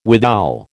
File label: "withal", American